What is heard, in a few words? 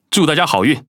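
Speech Quick Commands Good Luck, Taiwan; World Of Warships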